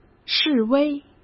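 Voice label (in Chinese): "示威", Mandarin Chinese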